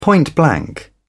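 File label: "point-blank", British English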